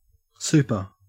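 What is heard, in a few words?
"super", US English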